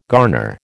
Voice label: "garner", American